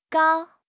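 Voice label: "gao1", Mandarin Chinese; HSK2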